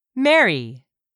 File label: "marry", English; 3000 words